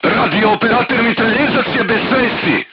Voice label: voice message machine gunner contused, crew tanks serbian